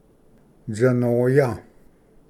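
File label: "tsanoya", Cherokee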